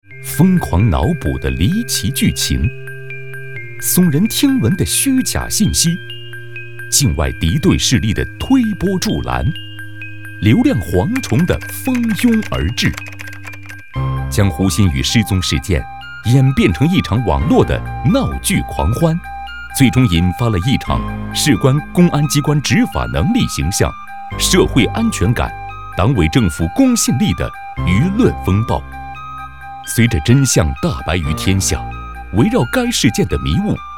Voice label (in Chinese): 男 悬疑片花; 失踪人员警情处置执法教学片